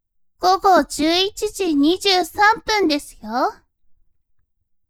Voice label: woman